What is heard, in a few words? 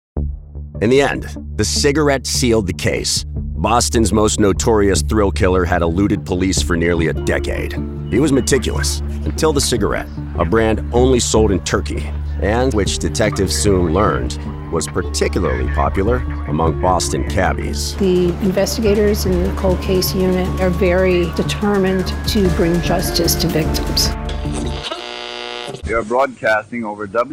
TV Narration